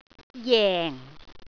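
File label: "jeng4", Cantonese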